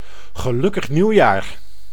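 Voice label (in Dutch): "Gelukkig nieuwjaar!", Dutch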